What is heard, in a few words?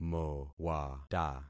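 "mowata", concatenated syllables; Affixing experiment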